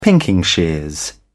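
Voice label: "pinking shears", British English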